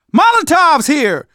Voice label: spot grenades, survivor voice; manager; Left 4 Dead 2; left4dead2 dlc2